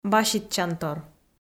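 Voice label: "баситчантор", Komi, female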